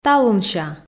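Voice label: "талунся", Komi, female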